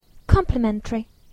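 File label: "complimentary", English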